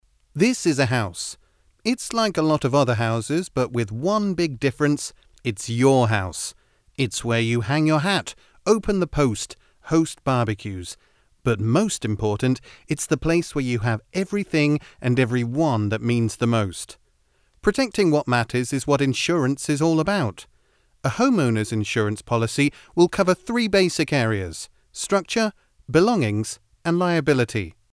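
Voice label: English UK Male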